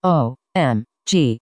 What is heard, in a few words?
omg voice changer with effects